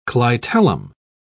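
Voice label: "clitellum", British English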